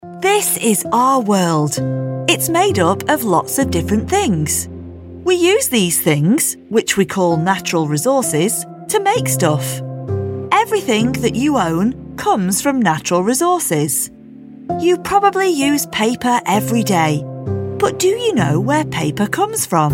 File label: narration